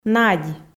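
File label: "надь", Komi, female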